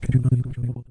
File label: jack and jill fade out